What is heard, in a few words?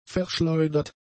"verschleudert", German; adjectives